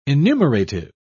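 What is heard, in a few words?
"enumerative", English, British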